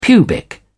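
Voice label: "pubic", British English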